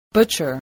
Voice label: "butcher", spelling